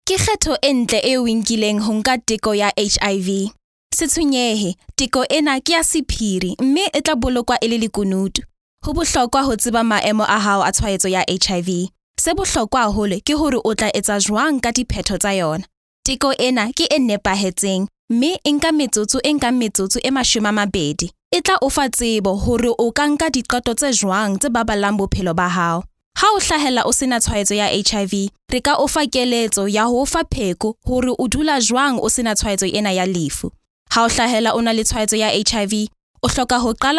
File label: Sesotho